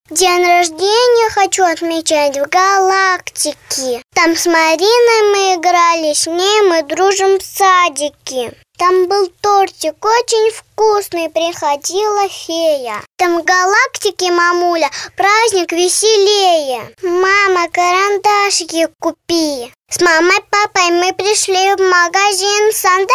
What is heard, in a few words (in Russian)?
devochka 6 let